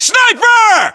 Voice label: sniper, voiceovers, US; english

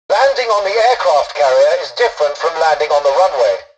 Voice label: tutorial dialogue, english; War Thunder

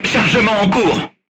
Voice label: voice message reloading, crew tanks french

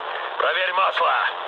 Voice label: damaged oil, mood low, speech ru; War Thunder

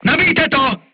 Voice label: voice message load, crew tanks czech

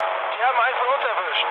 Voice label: aircraft destroyed, mood low, speech ge

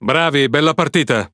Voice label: Speech Quick Commands Good Game, IT; World Of Warships

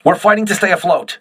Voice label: voice message repairing, crew ships english

